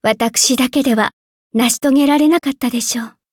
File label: levelup, ja; Cookie Run